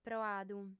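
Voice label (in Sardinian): "proadu", Sardinian, female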